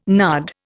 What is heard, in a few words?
"nard", Indian